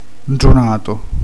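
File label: "intronato", Italian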